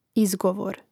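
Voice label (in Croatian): "izgovor", Hrvatski; mreznik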